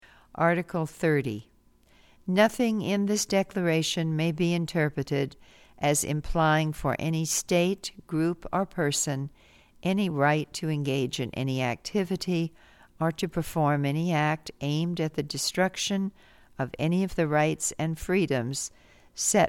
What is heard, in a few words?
English